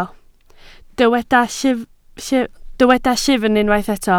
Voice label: "llyfn", Welsh